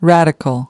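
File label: "radical", American English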